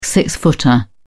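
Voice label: "six footer", British English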